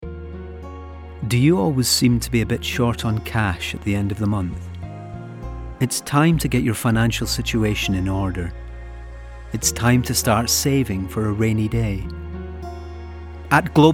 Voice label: Corporate Sample; Global